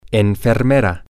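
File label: nurse, Spanish, male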